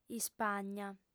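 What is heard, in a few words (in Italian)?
"ispagna", lingua sarda, fem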